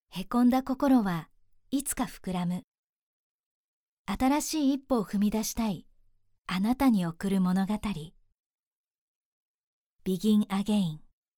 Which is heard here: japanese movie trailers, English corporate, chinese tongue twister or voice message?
japanese movie trailers